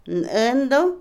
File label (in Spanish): asno, burro, Otomí, mujer; Animales; INALI